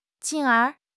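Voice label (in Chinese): "进而", Chinese; HSK6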